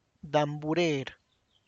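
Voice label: tlacochahuaya, Zapotec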